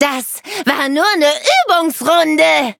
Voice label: defeat, de; Cookie Run